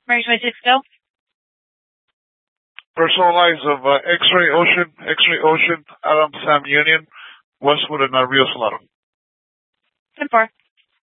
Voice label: Mesa PD Central Dispatch, Scanner

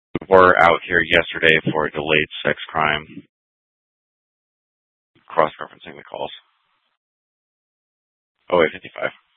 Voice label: Dispatch; Scottsdale

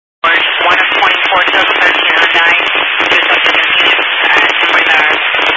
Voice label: Highway Patrol, scanner; DPS

